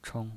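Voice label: "chong", Mandarin Chinese pinyin voice, neutral tone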